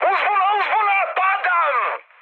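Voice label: Serbian aircraft destroyed mood high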